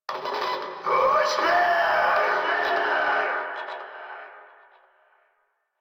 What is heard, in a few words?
Yell From Skiff, en; Warframe; Eidolon Skiff Grineer; Battle Barks